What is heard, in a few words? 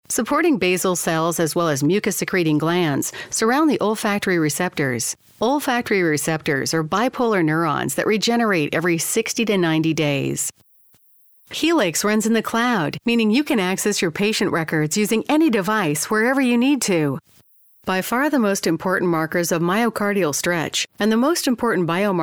Narration, Female, Medical